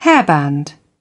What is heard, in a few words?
"hairband", British